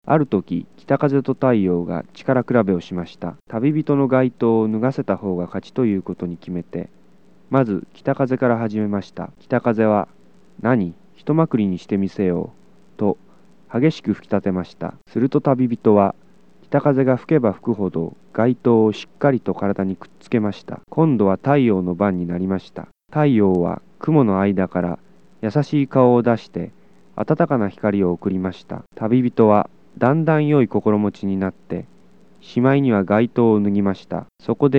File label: Japanese whole story